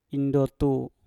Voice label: "indotu" saya sendiri, Mpur

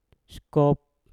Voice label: "skop" tendang, Mpur

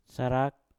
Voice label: "sarak" kalung tangan, Mpur

